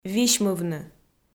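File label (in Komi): "висьмывны", Komi, female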